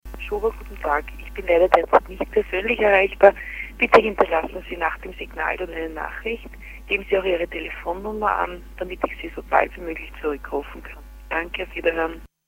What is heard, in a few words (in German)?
Anrufbeantworter